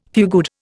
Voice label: "fugued", Indian